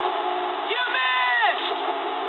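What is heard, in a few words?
bomb failure mood high, speech English; War Thunder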